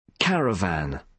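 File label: "caravan", British English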